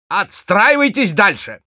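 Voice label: Spy move up, Russian; "Отстраивайтесь дальше!"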